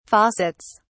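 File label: "faucets", British English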